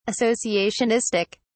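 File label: "associationistic", British English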